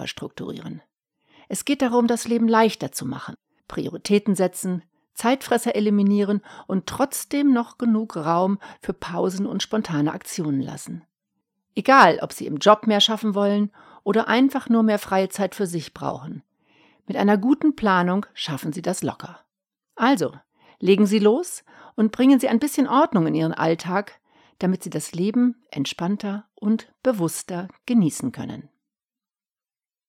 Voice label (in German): Hoerprobe Ratgeber; hoerproben hoerbuchsprecherin